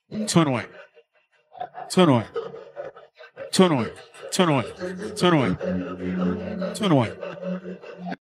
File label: saying 21, vocals only; xxl 2016